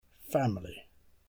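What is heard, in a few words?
"family", English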